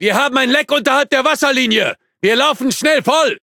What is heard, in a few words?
Speech Alarms Critical Flooding, DE; World Of Warships